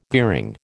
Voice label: "gearing", American

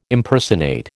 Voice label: "Impersonate", American

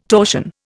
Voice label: "torsion", Indian

